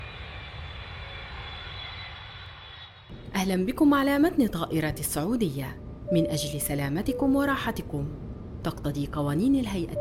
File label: Ivr; الطائره